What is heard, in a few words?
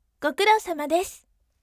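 voice gokurousamadesu bright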